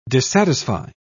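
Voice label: "dissatisfy", British English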